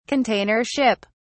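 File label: "container ship", British English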